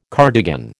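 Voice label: "cardigan", American English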